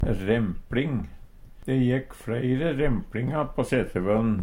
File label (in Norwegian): rempling, Numedalsmål; De jekk fleire rempLinga på setervøllen.